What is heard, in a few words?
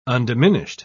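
"undiminished", British English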